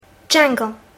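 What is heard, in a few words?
"jungle", English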